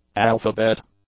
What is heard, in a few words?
"alphabet", English; nouns